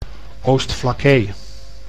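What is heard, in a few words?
"Oostflakkee", Dutch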